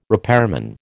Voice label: "repairman", American

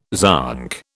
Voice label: "zonk", British

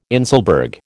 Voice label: "inselberg", American

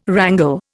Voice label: "wrangle", Indian

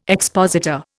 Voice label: "expositor", Indian

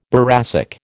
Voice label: "boracic", American

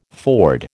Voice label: "ford", American

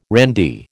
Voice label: "randy", American

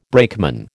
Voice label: "brakeman", American

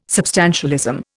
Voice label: "substantialism", Indian

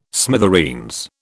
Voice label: "smithereens", British

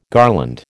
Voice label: "garland", American

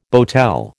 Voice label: "boatel", American

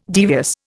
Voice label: "devious", Indian

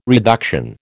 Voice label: "reduction", American

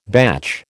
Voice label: "batch", American

